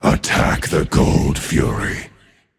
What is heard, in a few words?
vox attack; smite; hades